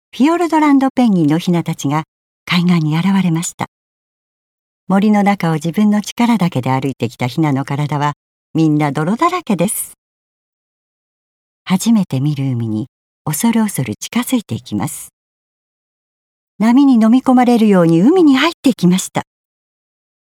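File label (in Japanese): 自然観察ナレ, ボイスサンプル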